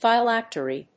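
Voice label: "phylactery", English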